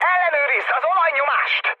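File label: Hungarian damaged oil mood high, speech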